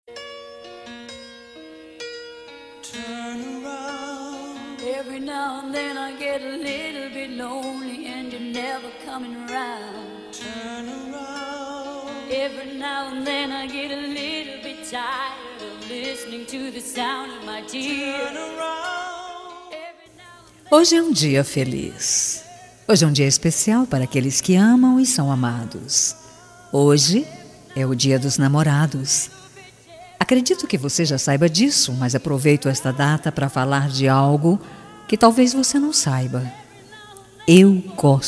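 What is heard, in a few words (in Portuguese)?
Com Conquista, Voz Feminina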